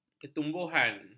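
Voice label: "ketumbuhan", Malay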